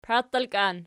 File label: "paitelkan", Archi